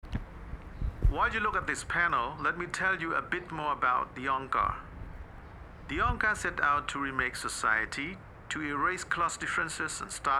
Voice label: narrator; YEAR ZERO EXTRACT; Cambodia phnom penh